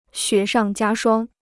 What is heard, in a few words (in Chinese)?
"雪上加霜", Chinese